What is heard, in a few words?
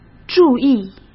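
"注意", Mandarin Chinese; chinese flashcards; hsk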